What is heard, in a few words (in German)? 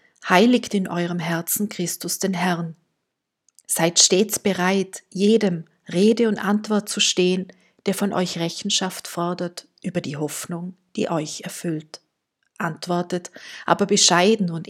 Lesung